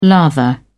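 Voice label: "lather", British English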